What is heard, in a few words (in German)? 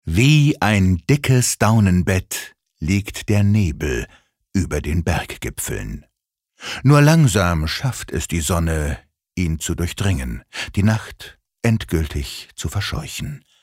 Schauspiel Variabel